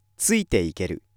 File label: male